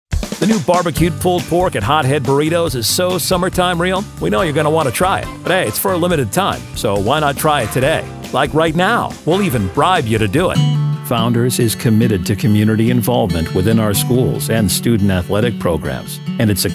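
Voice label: small business commercial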